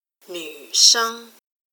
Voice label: female